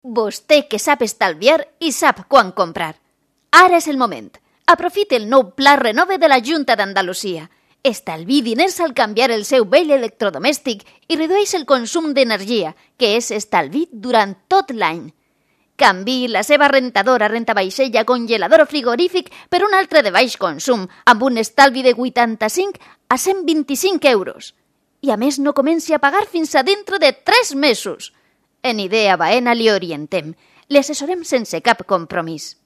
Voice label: locutora valenciana

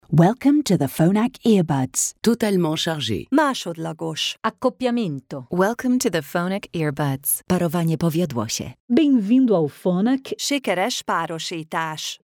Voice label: comandos voz locutores voices

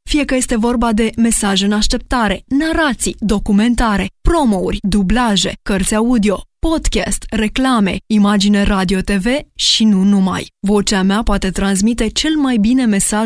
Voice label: locutora rumana romanian voiceover; locutora de Rumanía

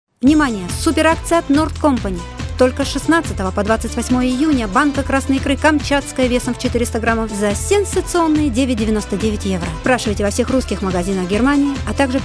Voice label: locutora rusa

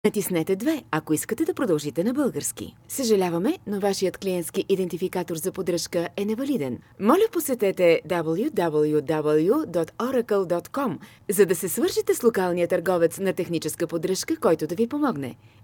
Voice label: locutora bulgara

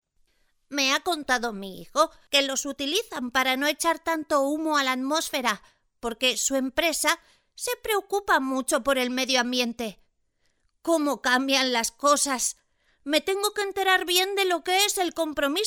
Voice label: abuela spanish voiceover; voces ancianos

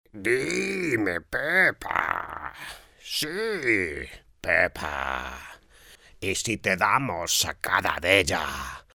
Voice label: locutor imitador; escenas matrimonio

